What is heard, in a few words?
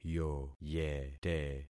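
"yoyete", concatenated syllables; Affixing experiment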